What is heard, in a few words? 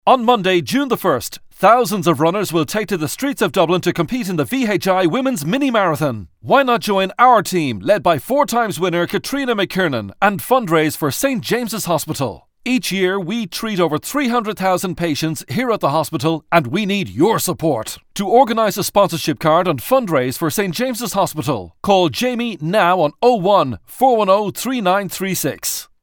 radio ad fast